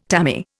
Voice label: "tammy", Indian

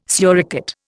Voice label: "suricate", Indian

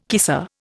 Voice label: "kisser", Indian

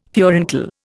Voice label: "furuncle", Indian

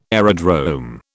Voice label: "aerodrome", British

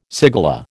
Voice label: "cigala", American

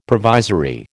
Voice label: "provisory", American

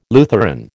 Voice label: "Lutheran", American

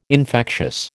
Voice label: "infectious", American

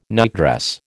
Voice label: "nightdress", American

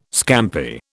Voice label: "scampi", British

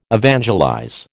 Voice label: "evangelize", American

